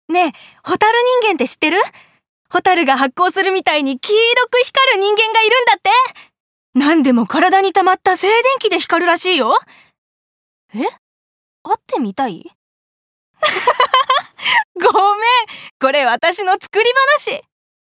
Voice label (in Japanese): 軽妙大学生